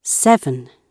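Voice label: "seven", British English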